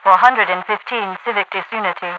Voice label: disturbing unity 415, radio voice; Half Life 2